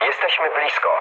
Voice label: near waypoint mood low, speech pol; War Thunder